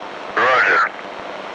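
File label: "Roger!" English, speech; Landing; DCS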